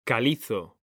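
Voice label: "calizo", Spanish, male; mekanta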